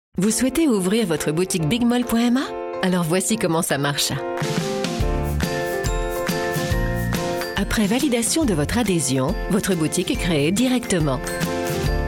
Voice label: French Female Commercial; commercial voiceover